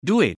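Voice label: "duit", Malay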